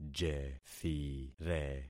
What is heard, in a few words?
"jethire", concat syllables; Affixing experiment